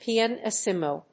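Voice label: "pianissimo", English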